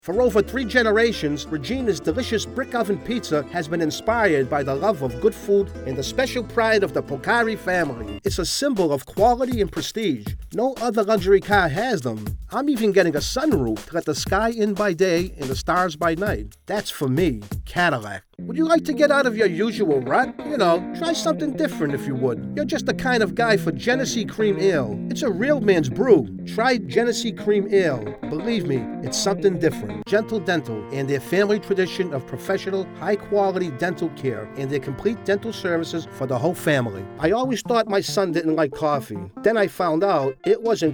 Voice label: commercial

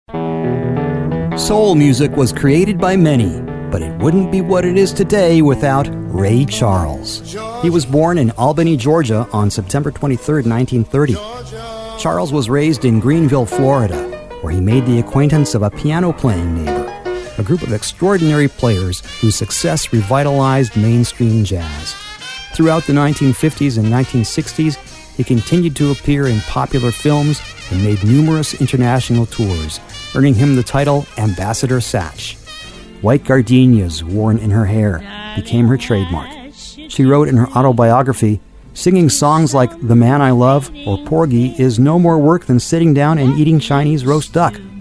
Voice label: DOCUMENTARY

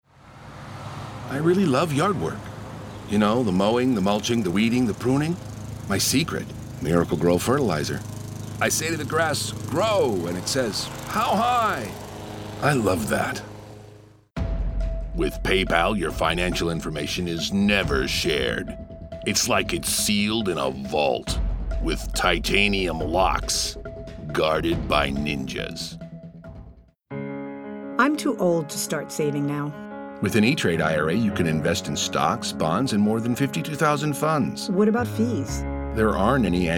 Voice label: commercial

